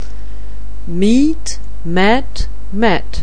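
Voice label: "meet", English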